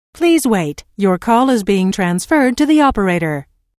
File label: Please Wait Your Call Is Being Transferred To The Operator, Telephone Prompt; Recorded Voice Sound Effects